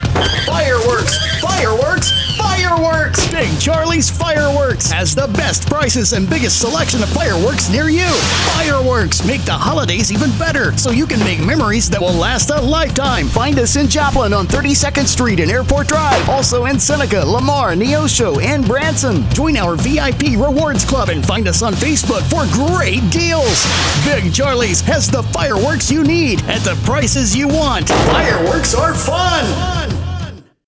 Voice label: radio ad